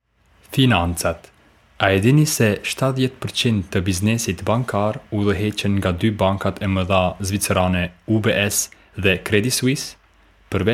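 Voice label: Albanian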